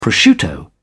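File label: "prosciutto", British English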